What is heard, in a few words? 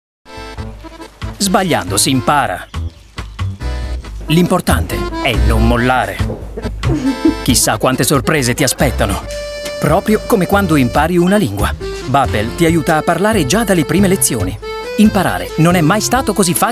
Commercial